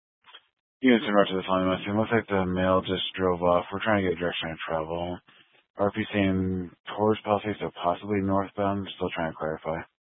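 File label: Sheriff Dispatch, Scanner audio recording archive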